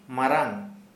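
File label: Santali male big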